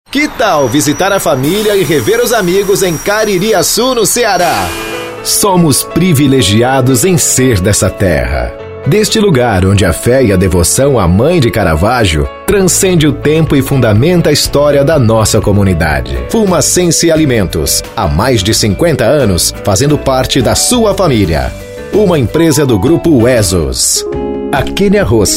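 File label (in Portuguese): VOZES MASCULINAS, Padrão Institucional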